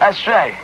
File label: "that's right", male